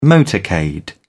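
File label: "motorcade", British English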